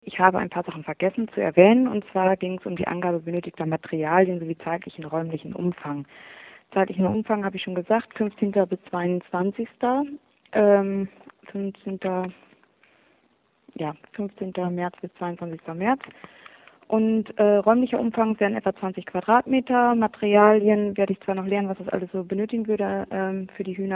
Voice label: Voicemail